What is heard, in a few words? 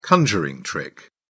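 "conjuring trick", British English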